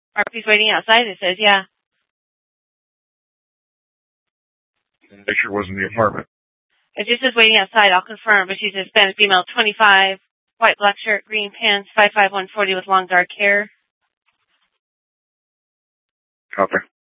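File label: police dispatch, scanner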